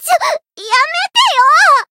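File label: Voice encounter fear, Japanese; suzette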